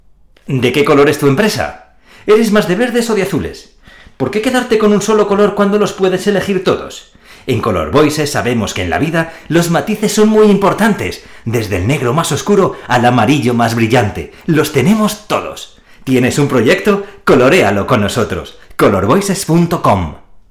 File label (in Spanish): Hombre Corporativo